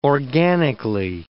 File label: "organically", English